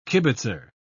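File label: "kibitzer", British English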